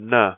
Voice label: na letter, hindi devanagari